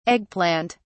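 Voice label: "egg plant", British English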